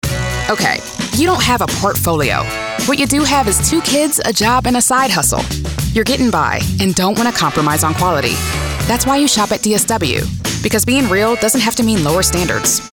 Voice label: Real Understanding Casual Commercial, African American voice; DSW